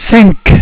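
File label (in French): "cinq", French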